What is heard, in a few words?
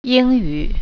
"english", Mandarin